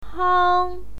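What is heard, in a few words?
"hang", Mandarin Chinese pinyin, first tone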